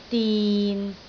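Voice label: "din6", Cantonese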